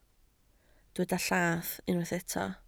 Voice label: "llath", Welsh